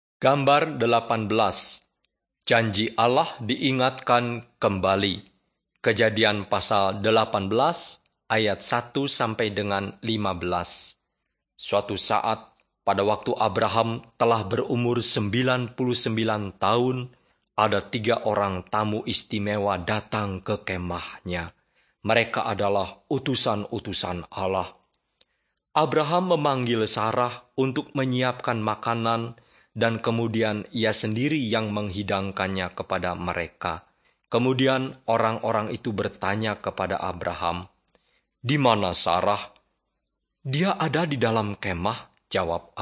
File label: bahasa indonesia; beginning with god